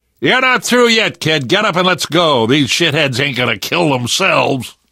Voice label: revive friend, left4dead2 survivor voice namvet